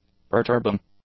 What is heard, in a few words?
"perturbing", english; adjectives